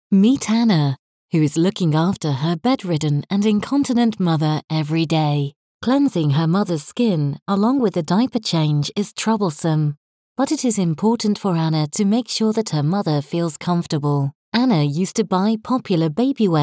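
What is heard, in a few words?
English female; lektoring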